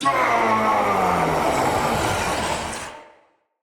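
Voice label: Battle Cry, Battle Barks, Dialog, English; Nimrod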